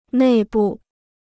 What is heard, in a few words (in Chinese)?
"内部", Chinese